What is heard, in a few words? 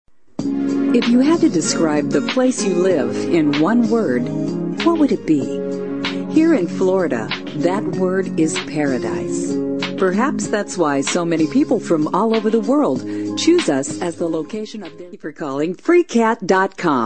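english american female voice Commercials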